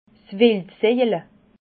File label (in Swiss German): "wildsojele", alsace